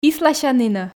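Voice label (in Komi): "исласянінӧ", Komi, female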